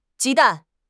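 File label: "ji1 dan4", Mandarin Chinese; HSK2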